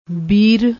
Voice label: "beer", Punjabi

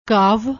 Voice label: "kaav", Punjabi; ਕਾਵਿ

